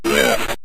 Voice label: "left", synth voice; Kenney's Sound Pack